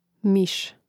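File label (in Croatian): "mis", Croatian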